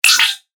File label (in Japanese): ロボの声